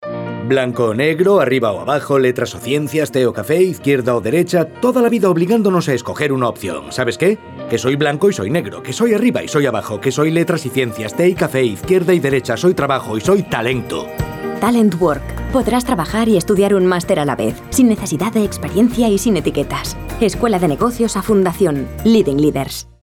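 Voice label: Spanish commercial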